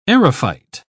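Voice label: "aerophyte", English, uk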